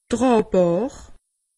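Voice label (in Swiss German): "traabakr", Alsatian; medecine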